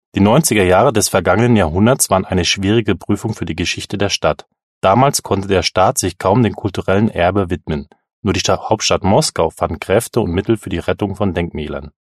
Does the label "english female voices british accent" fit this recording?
No